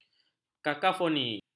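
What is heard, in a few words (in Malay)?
"kakofoni", Malay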